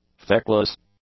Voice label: "feckless", english; adjectives

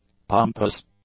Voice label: "pompous", english; adjectives

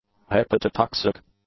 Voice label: "hepatotoxic", English; adjectives

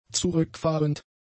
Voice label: "zurückfahrend", German; adjectives

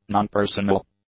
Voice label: "nonpersonal", english; adjectives

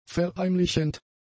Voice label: "verheimlichend", German; adjectives

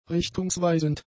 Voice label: "richtungsweisend", German; adjectives

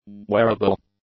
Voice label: "wearable", English; adjectives

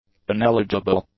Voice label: "uneligible", English; adjectives

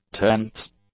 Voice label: "tenths", English; adjectives

